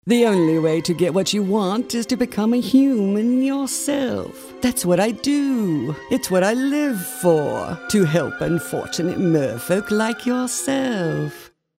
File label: british pompous social snobs